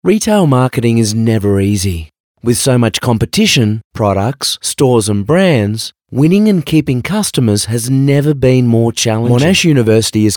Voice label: Natural